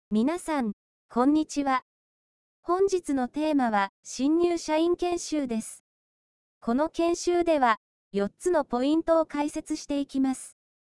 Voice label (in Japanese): 波形接続型音声合成方式による女性の声; 近年の音声合成ソフト